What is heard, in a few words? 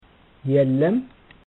"yellem", amharico